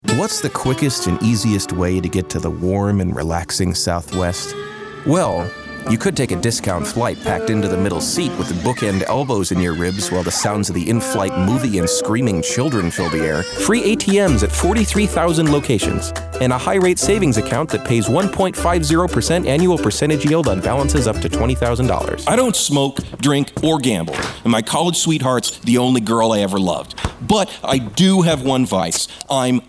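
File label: Commercial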